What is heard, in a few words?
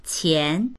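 "qian2", Mandarin Chinese; 钱; お金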